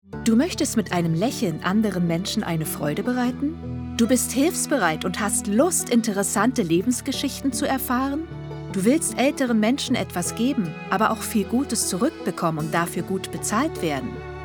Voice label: Sprachprobe Spot Altenhilfe

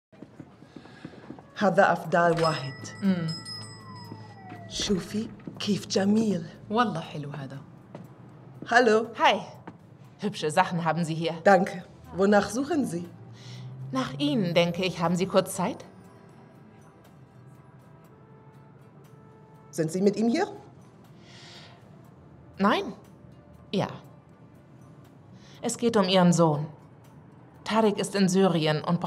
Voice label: Synchronisation arabischer Akzent; LOFT VOICES

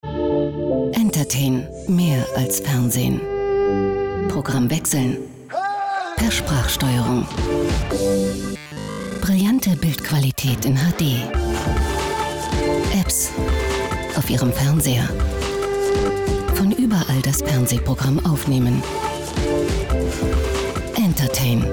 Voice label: deutsch female werbung off; telekom entertain

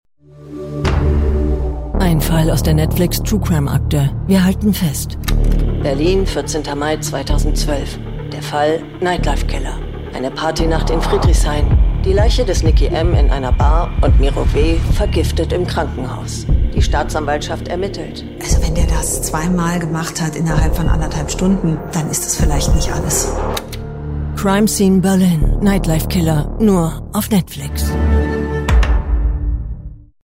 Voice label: Werbung, Commercial (Werbung), German; Netflix Crime Scene Berlin

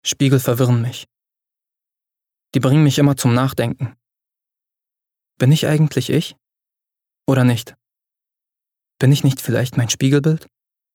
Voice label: Innerer Monolog

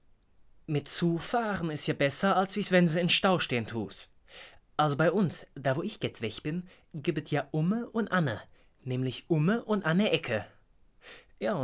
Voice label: dialekt ruhrpott

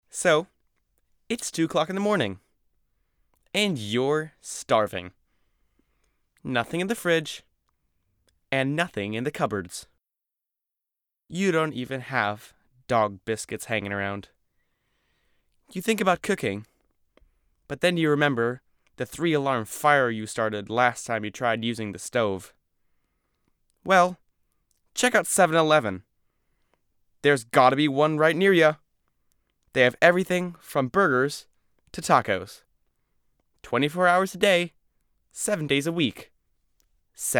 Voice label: werbung us

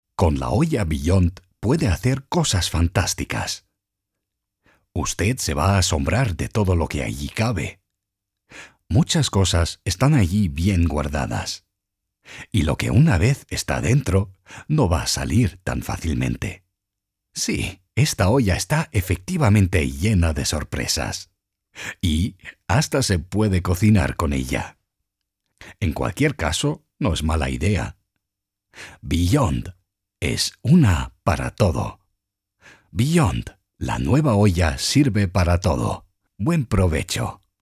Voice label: Text Spanisch

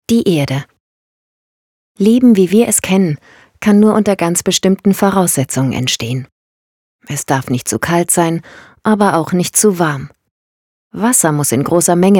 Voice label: Hoerbuch Sachbuch, Audiobook (Hörbuch), German

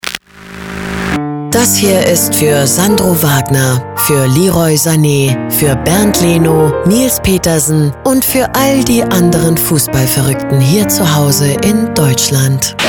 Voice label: enttäuscht; Audible; Wilde WM Podcast; LOFT VOICES

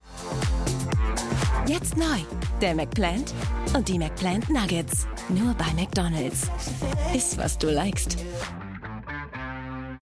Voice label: TV Werbung Stimmprobe; McDonalds; LOFT VOICES

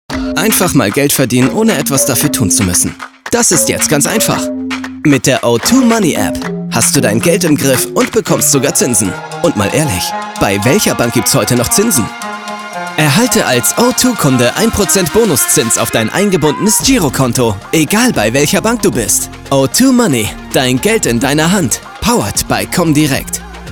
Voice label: Sprachprobe Onlinespot

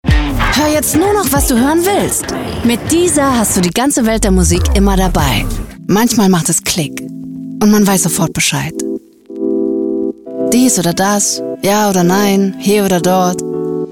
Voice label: Werbung Mix

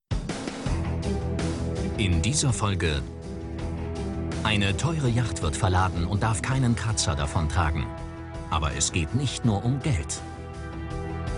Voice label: Doku Transport; LOFT VOICES

